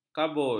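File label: "kabul", Malay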